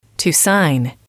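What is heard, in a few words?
"to sign", English, female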